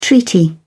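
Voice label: "treaty", British English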